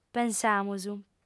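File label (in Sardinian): "pensamus", female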